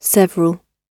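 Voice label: "several", British English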